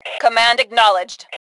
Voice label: Command Acknowledged, Female; T2 Female 01

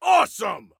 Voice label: Compliment Awesome, Soldier Male

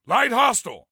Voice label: Warn, Soldier Male; Soldier Male 03

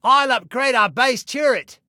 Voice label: Upgrade Self Turret, Male; Male 02

